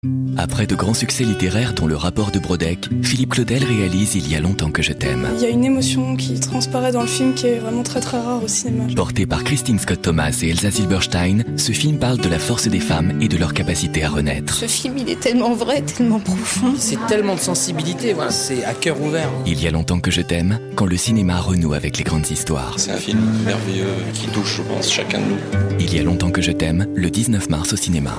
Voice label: intime, naturel, voix off publicité; UGC

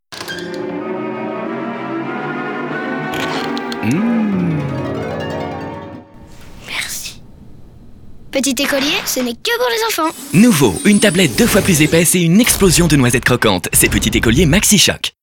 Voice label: promo pechu, voix off publicité

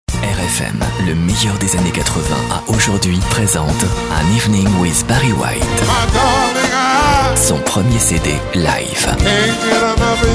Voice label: grave, Voix off disque; grave chaleureux

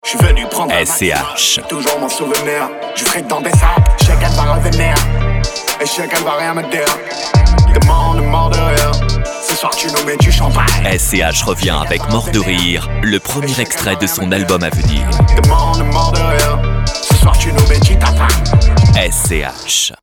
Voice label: voix grave inquietant, voix off homme

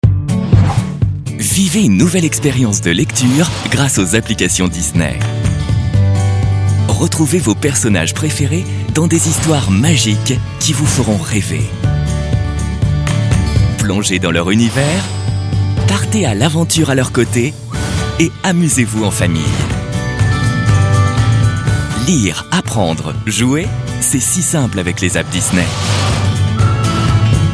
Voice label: souriant complice, voix off jeunesse; DISNEY AP

